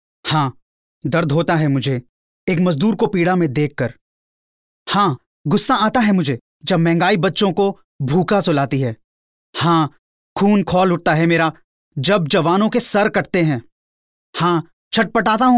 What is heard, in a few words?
hindi, male voice